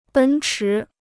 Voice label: "奔驰", Chinese; 奔驰 (bēn chí); Benz (name); Mercedes-Benz, German car maker

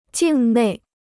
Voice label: "境内", Chinese

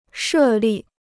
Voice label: "设立", Chinese

